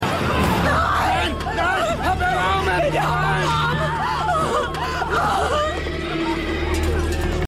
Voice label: Frau Aelterer Mann; Hercules

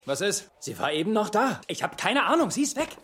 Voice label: Spanischer Polizist

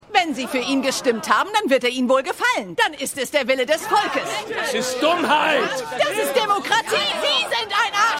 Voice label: Frau, Mann; Lebe Larry

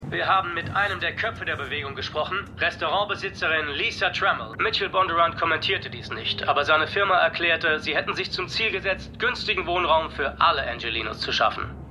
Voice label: Nachrichtensprecher; Berufsregeln; The Rules of Professional Conduct; The Lincoln Lawyer; Stimmproben; USA

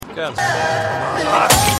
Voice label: Kaffeeverkaeufer Wuetender Mann, Stimmproben; Law & Order

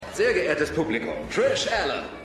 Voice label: Ansager; God Friended Me

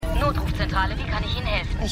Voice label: Notrufdisponentin; Scandal

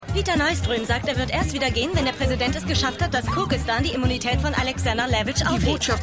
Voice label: Reporterin; Scandal; WZOP 2

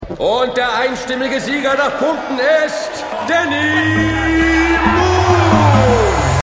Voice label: Ringsprecher

